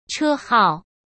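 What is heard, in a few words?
"车号", Mandarin Chinese; chinese-flashcards; hsk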